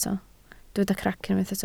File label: "crac", Welsh